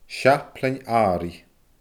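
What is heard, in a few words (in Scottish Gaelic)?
"seaplain aaraidh", Scottish Gaelic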